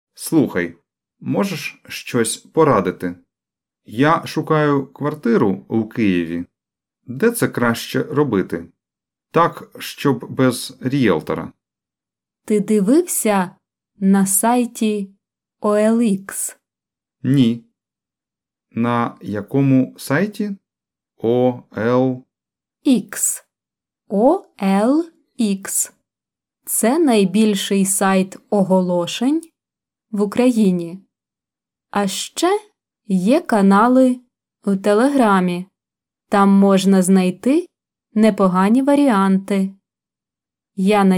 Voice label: Dialogue Slow